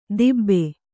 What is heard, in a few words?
"ದಿಬ್ಬೆ", kannada